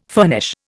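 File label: "furnish", Indian English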